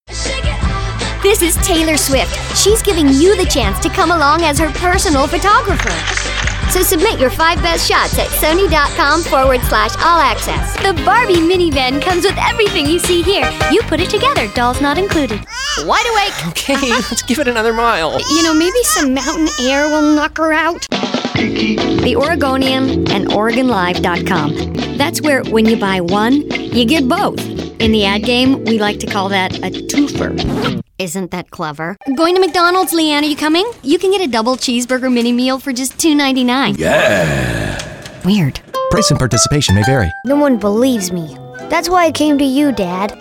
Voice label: Commercial VO; Debi Derryberry